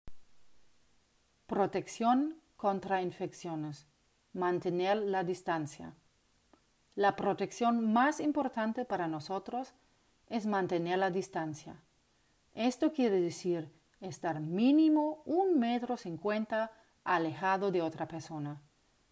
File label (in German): Spanisch